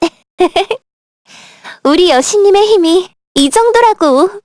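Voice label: Vox Victory, Korean; Maria L; kingsraid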